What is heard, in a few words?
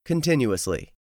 "continuously", English; 3000 words